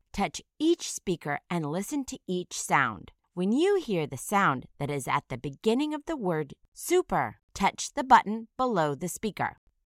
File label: "super", initial phoneme isolation